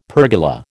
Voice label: "pergola", American